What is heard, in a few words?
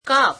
gujarati cup